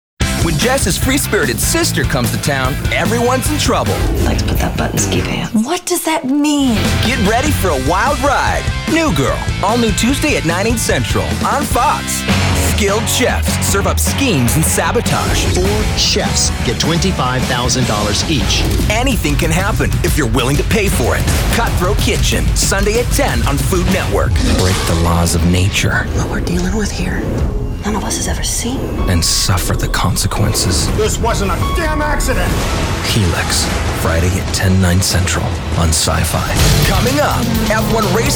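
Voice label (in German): US English, Aufgeregt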